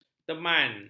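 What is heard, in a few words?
"teman", Malay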